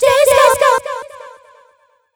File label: DISCO, SHOUTS, Funky Vocals; USB Soundscan vol.18; AKAI